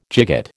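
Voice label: "gigot", American English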